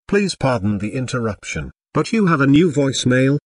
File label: voicemail; jarvis